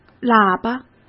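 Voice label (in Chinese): "喇叭", Mandarin Chinese; hsk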